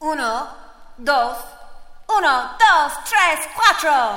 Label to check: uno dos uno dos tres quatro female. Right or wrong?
Right